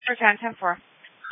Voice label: Sheriff Dispatch